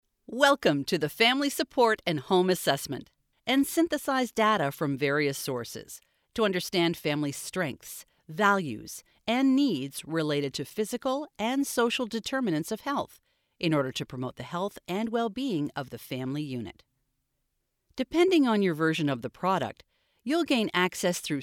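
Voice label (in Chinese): 外籍女声 美式英语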